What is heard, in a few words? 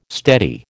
"steady", American